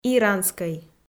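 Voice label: "иранскӧй", Komi, female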